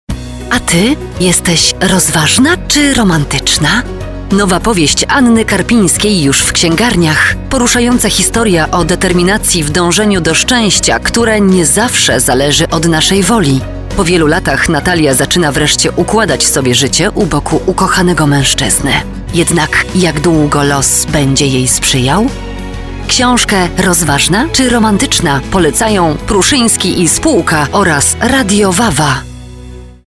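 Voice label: Polish, female, commercial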